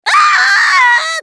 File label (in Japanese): ウォーロック女 死亡